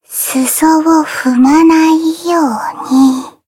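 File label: encount fear, Japanese; soira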